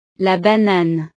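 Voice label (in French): "la banane", French; frutas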